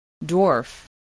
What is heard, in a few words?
"dwarf", English